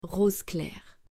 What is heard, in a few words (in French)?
"Rose clair", French